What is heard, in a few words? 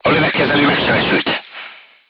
voice message gunlayer wounded, crew tanks hungarian